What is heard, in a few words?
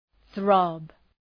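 "throb", English; {ɵrɒb}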